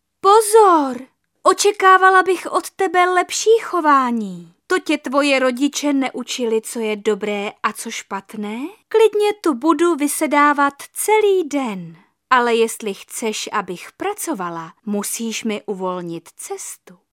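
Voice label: female Czech drama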